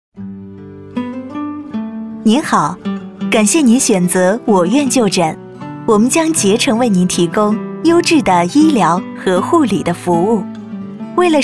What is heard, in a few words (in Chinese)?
语音导游 医院, 女